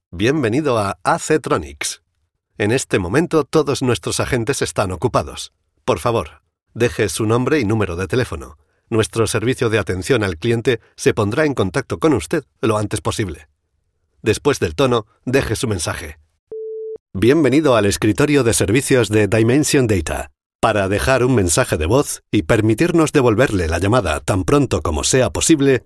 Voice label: spanish ivr